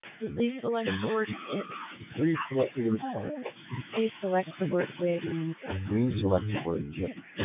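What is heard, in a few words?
P25 full rate club; P25-FR4,400; speech-intelligibility-demo; Codec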